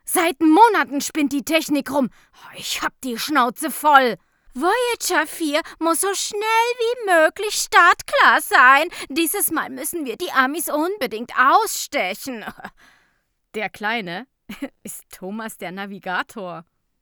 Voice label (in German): Sarkastisch